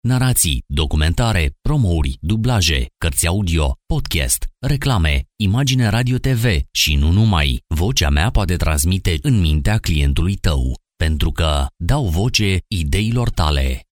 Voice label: romanian voiceover; Locutor rumano